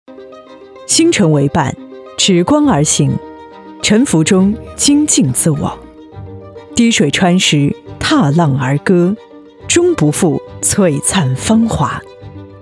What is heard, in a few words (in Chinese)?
专题人物宣传片低沉磁性成熟